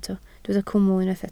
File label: "cwmwl", Welsh